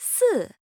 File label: Chinese Number